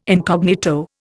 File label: "incognito", Indian